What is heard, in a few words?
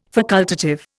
"facultative", Indian